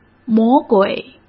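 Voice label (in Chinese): "魔鬼", Mandarin Chinese; hsk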